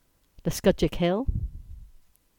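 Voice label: "Lescudjack Hill", English; place-names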